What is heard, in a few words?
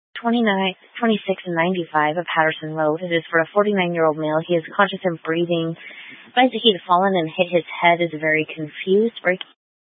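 Fire Dispatch, scanner audio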